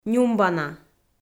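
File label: "нюмбана", Komi, female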